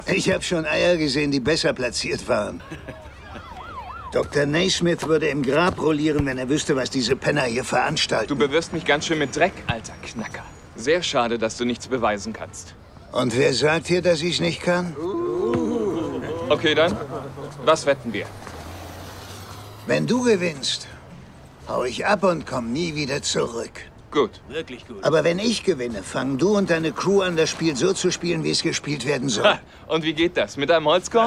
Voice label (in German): Synchron, maenner; Uncle Drew